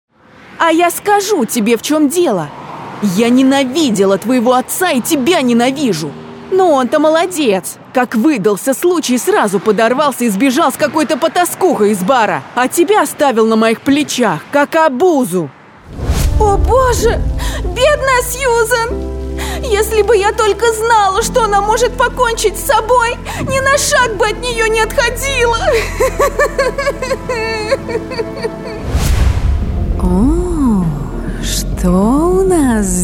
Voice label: RUS

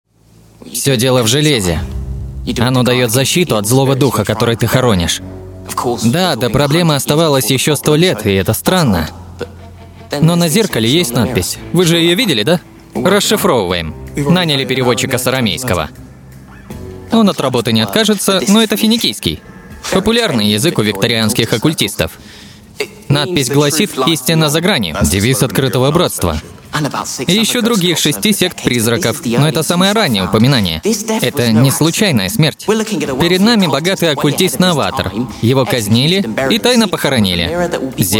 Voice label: RUS

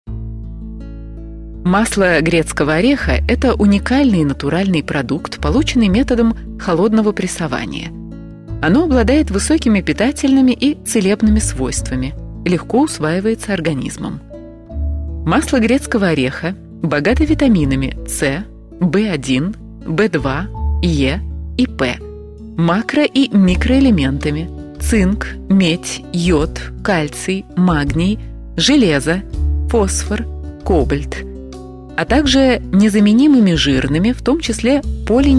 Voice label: rus

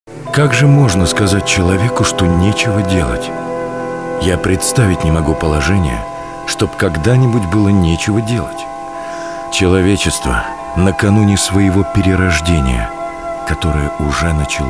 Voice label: rus

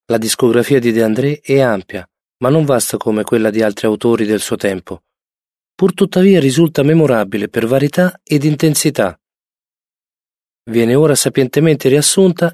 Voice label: italian male

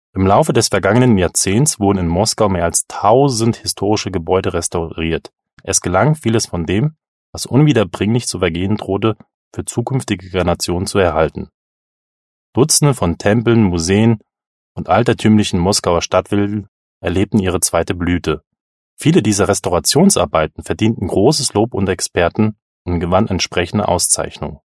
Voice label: german male

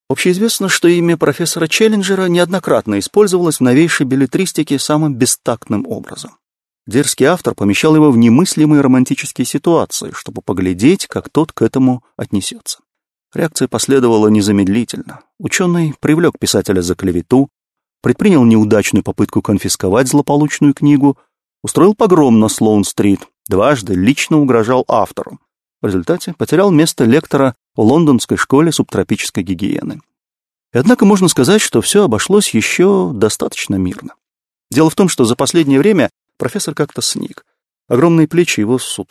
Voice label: russian male

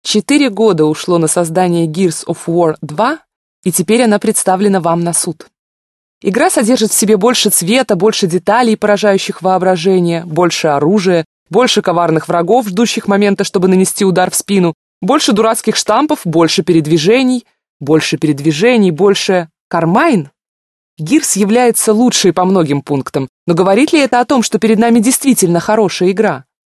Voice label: russian female

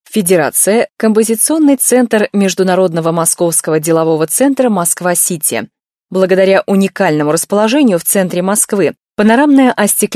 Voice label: russian female

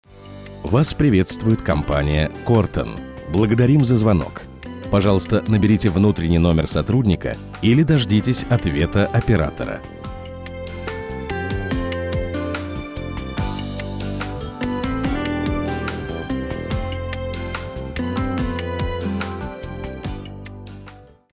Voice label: ivr

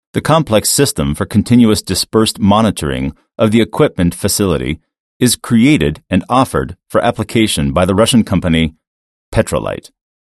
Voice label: american english male

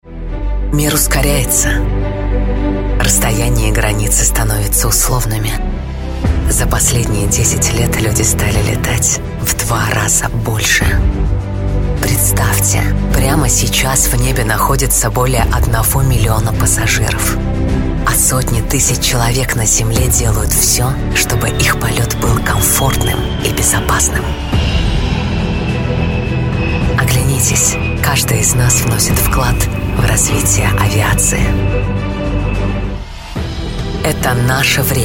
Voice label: RUS

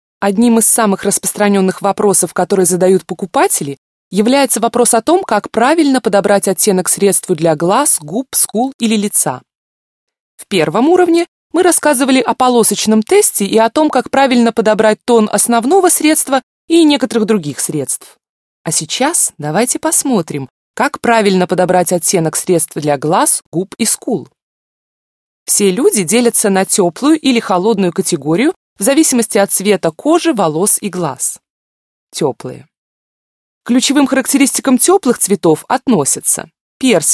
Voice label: russian female

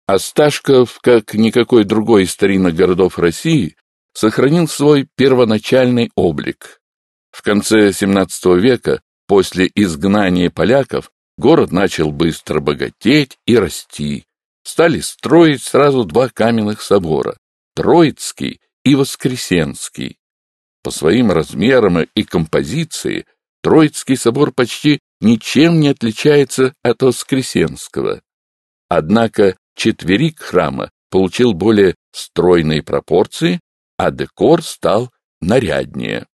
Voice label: russian male

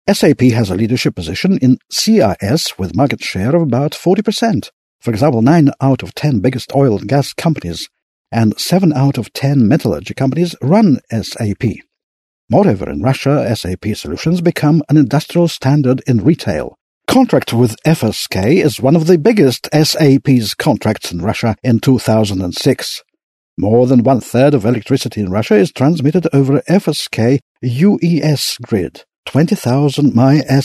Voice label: british english male

